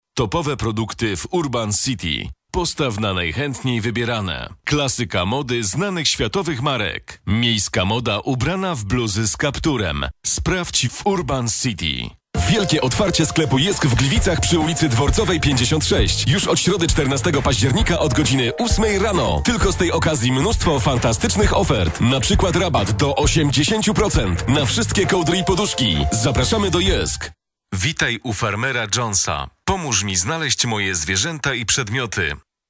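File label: Polish, male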